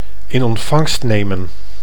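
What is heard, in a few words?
"in ontvangst nemen", Dutch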